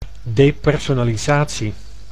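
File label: "depersonalisatie", Dutch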